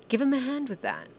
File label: voiced h